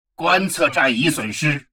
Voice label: advisor notification observation post lost, chinese; Stellaris